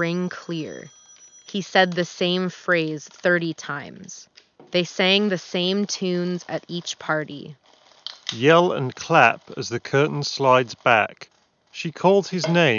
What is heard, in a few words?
Nacon Rig 700 Pro HS Office microphone sample